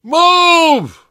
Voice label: emphatic go, survivor voice; source engine; left 4 dead 2; namvet